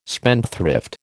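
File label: "spendthrift", American English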